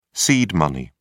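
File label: "seed money", British English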